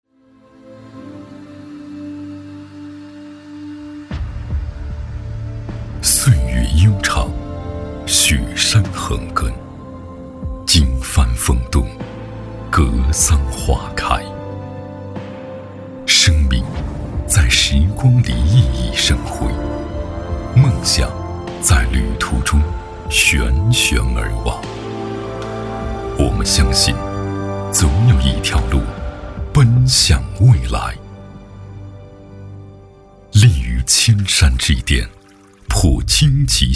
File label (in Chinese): 男 广告 独白 片 深沉高端; 格桑之路